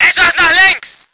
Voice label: voice ger vehicle

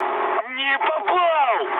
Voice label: bomb failure, mood med, speech ru; War Thunder

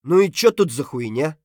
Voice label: mutter; Escape from Tarkov

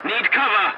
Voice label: voice message cover me, english; War Thunder

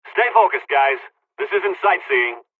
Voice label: dialogs polish; War Thunder

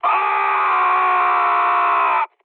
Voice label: damaged pilot, mood high, speech; War Thunder

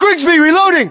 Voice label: US inform reloading, battlechatter, english

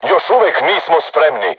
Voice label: voice message art reloading, crew tanks serbian

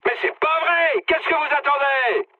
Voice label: attack position mood high, speech fr; War Thunder

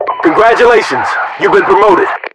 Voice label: promoted, voiceovers, US; english

